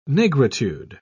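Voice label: "nigritude", British English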